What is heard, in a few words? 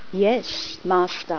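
no gong, Female voice, "Yes, Master."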